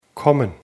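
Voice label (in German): "kommen", German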